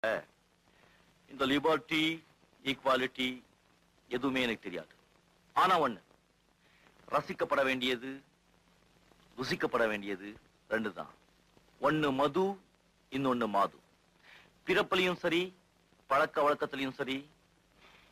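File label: mass dialogue, tamil; rajini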